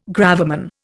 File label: "gravamen", Indian